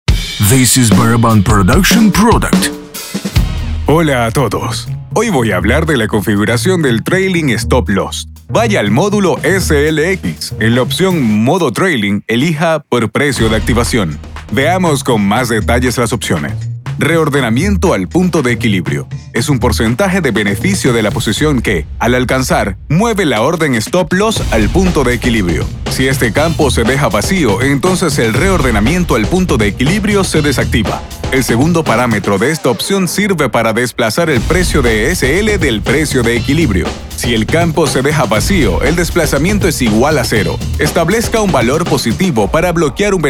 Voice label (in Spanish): Spanish Latin America